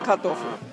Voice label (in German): Dialekte; GHV Dialekte 2018